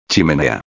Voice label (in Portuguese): "chimenea", espanhol; palavra do dia